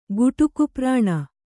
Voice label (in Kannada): "ಗುಟುಕುಪ್ರಾಣ", Kannada